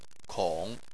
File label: "kong3", Chinese